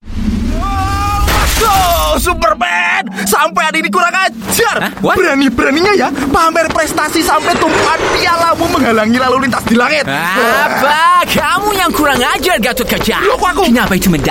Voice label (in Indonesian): Bahasa Indo Character Voice; Superman 2nd Voice